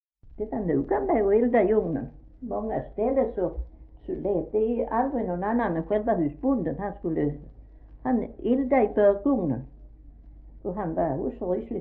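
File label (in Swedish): Fleninge Skåne, dialekter; Folkminnen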